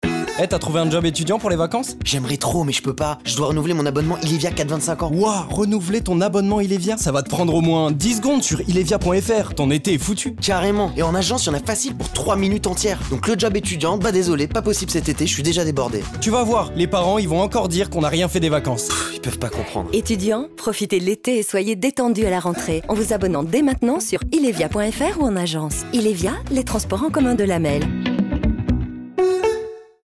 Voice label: spot campagne; ILEVIA; Campagne 4-25ans

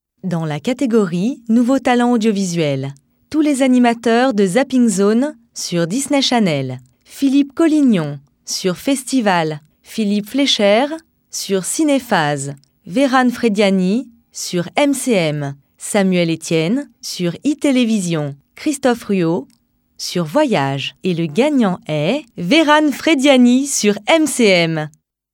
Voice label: présentation posée souriante; Les Ithemes 2000

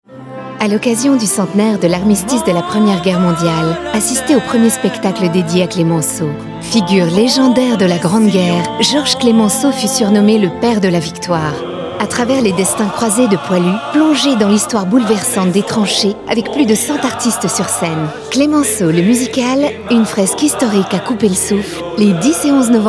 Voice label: pub radio; RADIO CLASSIQUE; Clemenceau

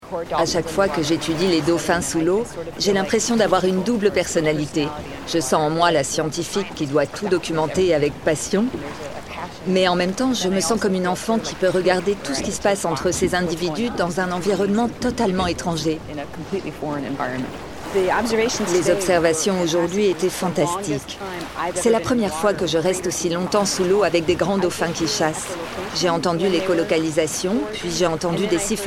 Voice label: doc voice over convaincante dynamique; LA VOIX DE L OCEAN

